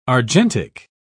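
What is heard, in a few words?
"argentic", British English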